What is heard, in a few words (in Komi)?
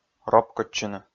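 "ропкӧдчыны", Komi, male; kpv audio dict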